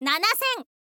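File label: "nanasen", Japanese, girl; voice; info girl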